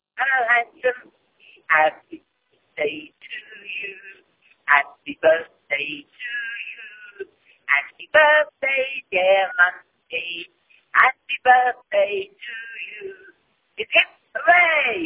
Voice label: voicemail